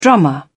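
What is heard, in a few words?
"drummer", British English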